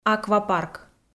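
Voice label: "аквапарк", Komi, female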